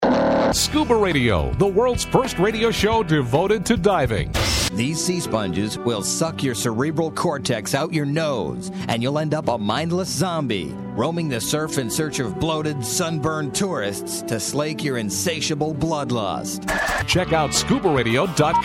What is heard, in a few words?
Promo